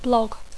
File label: "blog", English